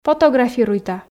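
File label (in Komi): "фотографируйта", Komi, female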